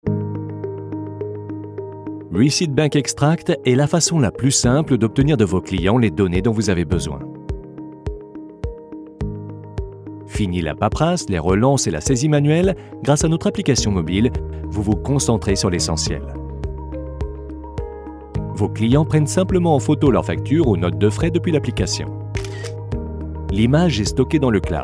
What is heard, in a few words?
corporate; receipt bank; French voice-over